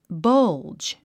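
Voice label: "bulge", English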